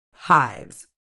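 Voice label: "hives", British English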